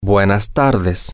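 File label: Spanish, male; good Afternoon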